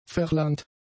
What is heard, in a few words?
"verlangt", German; adjectives